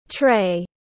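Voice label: "Tray", English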